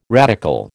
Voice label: "radical", American

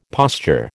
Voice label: "posture", American

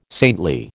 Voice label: "saintly", American

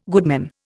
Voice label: "goodman", Indian

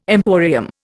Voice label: "emporium", Indian

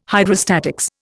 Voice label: "Hydrostatics", Indian

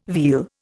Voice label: "veal", Indian

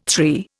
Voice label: "three", Indian

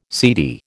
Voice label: "seedy", American

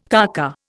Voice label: "kaka", Indian

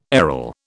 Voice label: "aril", British

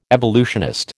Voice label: "evolutionist", American

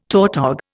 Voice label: "tautog", Indian

